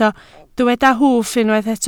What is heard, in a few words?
"hwff", Welsh